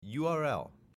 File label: "URL" pronounced